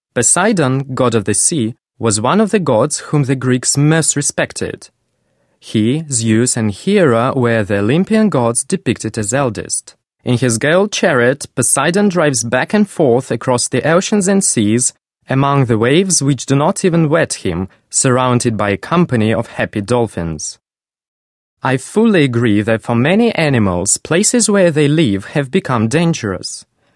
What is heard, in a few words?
british english male